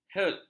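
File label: "hertz", Malay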